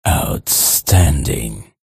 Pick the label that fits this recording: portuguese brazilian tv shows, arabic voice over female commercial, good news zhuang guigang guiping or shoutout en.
shoutout en